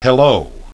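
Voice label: "hello", English pronunciation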